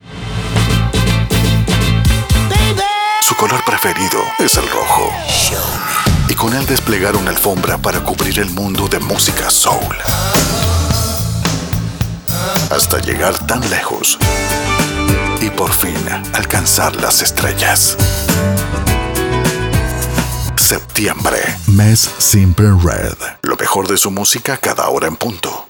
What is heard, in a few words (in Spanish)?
Locutor Promo